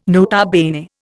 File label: "nota bene", Indian